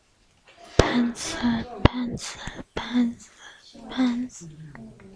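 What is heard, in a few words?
"pencil", spoken word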